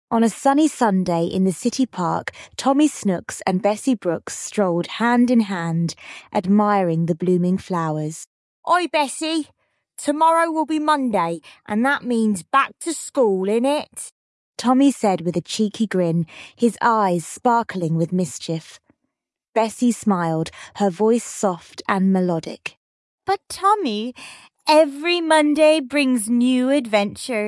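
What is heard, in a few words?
female British story; Tommy Snooks and Bessie Brooks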